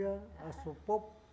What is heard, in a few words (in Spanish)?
"lluvia", Huave